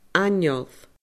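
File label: "aineol", Scottish Gaelic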